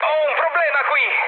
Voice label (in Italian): aircraft damaged, mood high, speech it; War Thunder